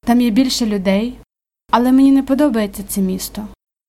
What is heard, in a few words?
dialogue, Ukrainian